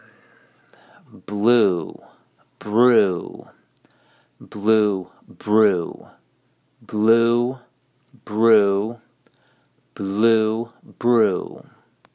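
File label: Blue brew, English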